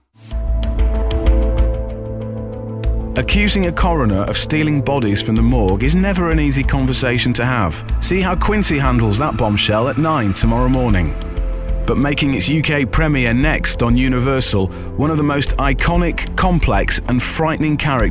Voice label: Continuity

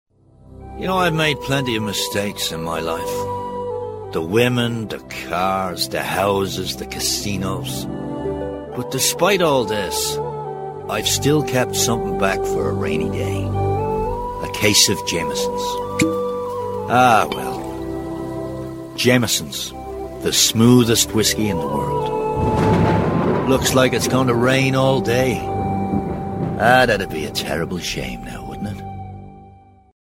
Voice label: Commercial; Jamesons; Vocal Point

